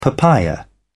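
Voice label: "papaya", British English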